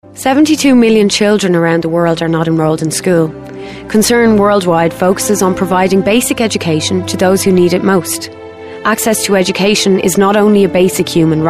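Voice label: English Irish, female, commercial; voice demos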